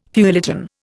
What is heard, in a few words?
"feuilleton", Indian